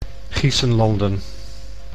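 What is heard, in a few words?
"Giessenlanden", Dutch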